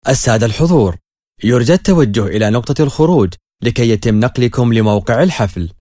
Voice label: Guide The Audience, Arabic; Classic Arabic; MBC